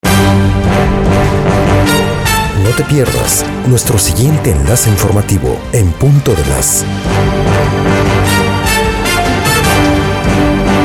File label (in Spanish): AVANCE NOTICIAS